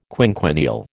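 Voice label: "quinquennial", American English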